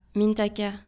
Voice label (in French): "mintaka", prononciation